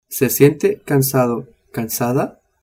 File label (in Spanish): "cansado", spanish